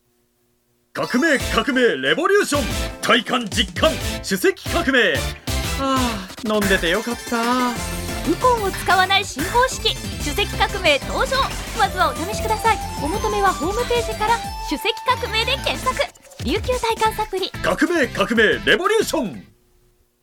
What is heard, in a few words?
radio cm